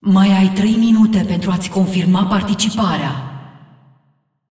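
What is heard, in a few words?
announcer female tournaments lockin 10min, hu_hu; lockin 3min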